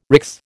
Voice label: "RICS", American